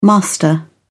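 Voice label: "master", British English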